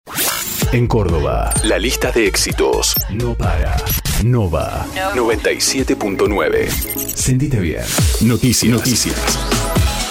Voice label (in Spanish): artisticas radio